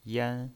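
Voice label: "yan" Mandarin Chinese pinyin voice, first tone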